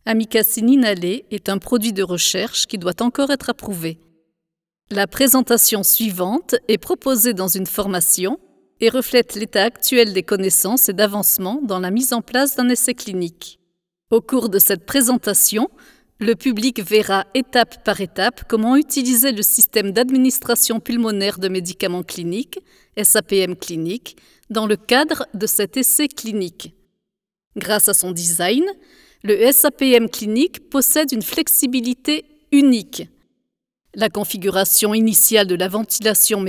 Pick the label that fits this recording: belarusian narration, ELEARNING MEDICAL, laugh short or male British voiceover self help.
ELEARNING MEDICAL